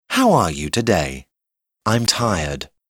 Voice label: tired dialogue